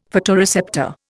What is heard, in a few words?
"photoreceptor", Indian; Dictionary